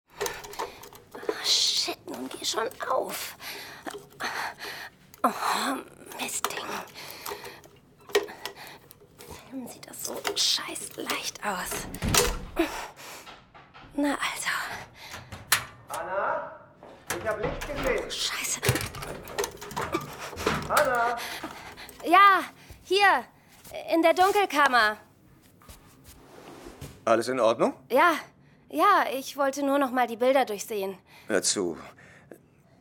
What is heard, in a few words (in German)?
hoerspiel; audible original imperator